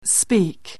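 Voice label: "speak", English; phonetik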